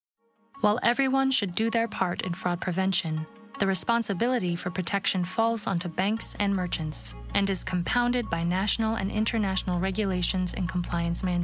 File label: Corporate